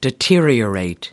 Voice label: "deteriorate", us